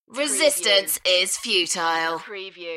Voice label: UK female; beanstalk-audio